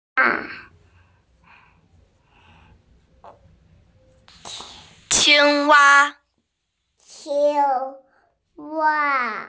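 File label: "frog", hearing impaired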